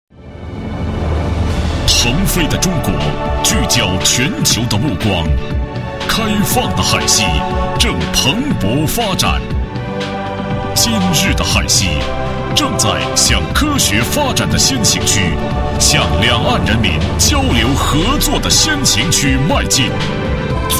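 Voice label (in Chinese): 专题_政府_大气; 国家海西战略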